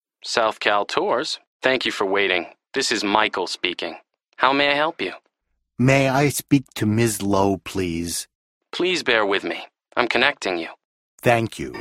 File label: Telephone, english listening survival